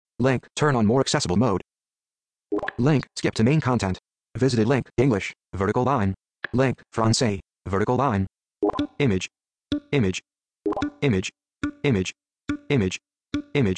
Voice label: VO home slow; metrolinx